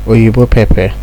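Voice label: "oyibo pepper", Nigerian Pidgin, male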